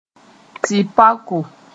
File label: "tɩ-paoko", Moore; bark of a tree